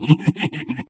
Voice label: ballbot short giddy